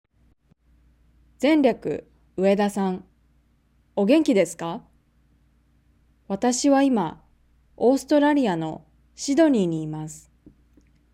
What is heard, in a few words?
Reading natural; OJAD